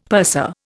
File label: "purser", Indian; Dictionary